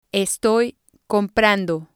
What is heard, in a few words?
"estoy comprando", spanish